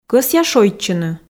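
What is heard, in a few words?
Komi sentences, female; кӧсъя шойччыны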